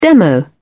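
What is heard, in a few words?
"demo", British English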